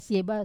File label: "seba" to open, Wamesa